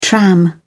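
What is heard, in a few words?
"tram", British English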